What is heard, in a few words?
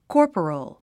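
"corporal", English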